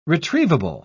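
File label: "retrievable", British English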